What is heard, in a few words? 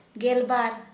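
twelve, Santali, female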